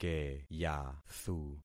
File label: "keyathu", concat syllables; Affixing experiment